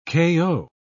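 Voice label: "kayo", British English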